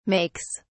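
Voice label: "makes", British English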